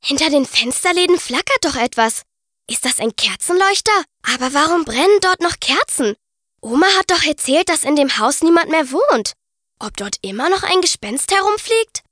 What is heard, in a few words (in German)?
maedchen; Tip Toi; Zauberwald